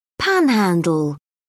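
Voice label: "panhandle", British English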